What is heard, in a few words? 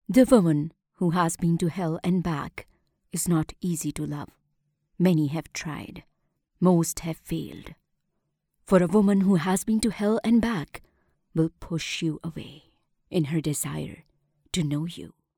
female urdu voice over english